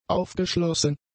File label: "aufgeschlossen", German; adjectives